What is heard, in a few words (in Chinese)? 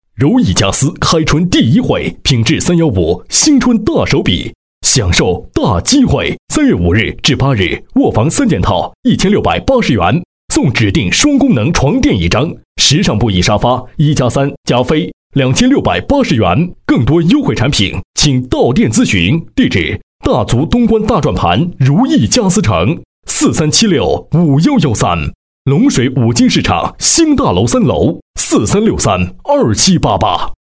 男, 广告; 如意家私